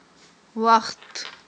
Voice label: "вахтт", Aghul

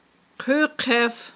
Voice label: "хъуьхъеф", Aghul

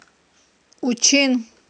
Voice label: "учин", Aghul

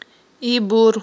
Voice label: "ибур", aghul

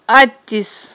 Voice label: "аттис", aghul

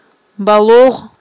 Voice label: "балугъ", aghul

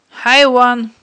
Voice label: "хIайван", aghul

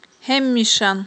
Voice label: "гьемишан", Aghul

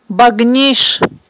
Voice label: "багниш", Aghul

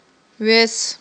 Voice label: "вес", Aghul

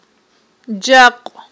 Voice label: "джакь", Aghul

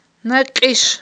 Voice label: "накьиш", Aghul

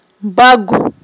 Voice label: "багв", Aghul

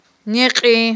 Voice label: "некьи", aghul

